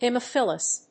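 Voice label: "haemophilus", English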